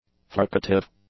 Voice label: "fricative", English